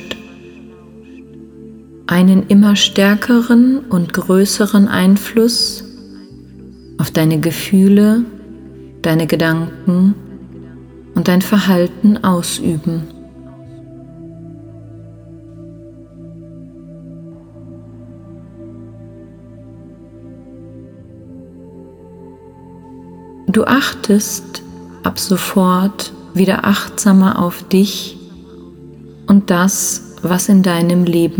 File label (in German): Stop Stage Fright Lampenfieber ueberwinden mit Hypnose Hoerprobe